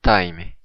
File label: i love you, occitan